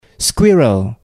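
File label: "squirrel", English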